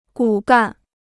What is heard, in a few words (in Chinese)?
"骨干", Chinese